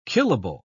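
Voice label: "killable", British English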